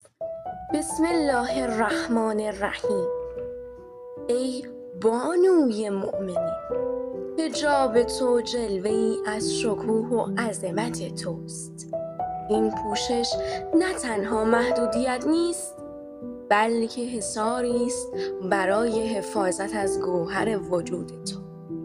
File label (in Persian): دکلمه خوانی; دکلمه خوانی خبرنگار پانا در وصف حجاب و عفاف; خراسان رضوی